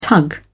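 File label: "tug", British English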